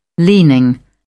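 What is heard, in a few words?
"leaning", English, British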